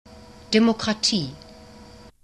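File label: "demokratie", German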